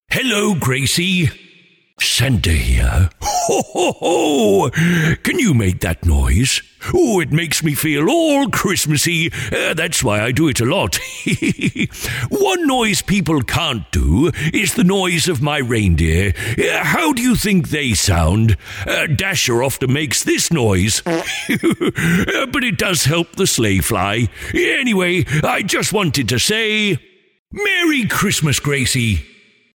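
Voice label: Personalised Santa Message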